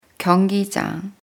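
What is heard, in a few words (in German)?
"Stadion", German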